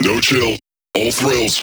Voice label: Vocal Phrase Male "No Chill"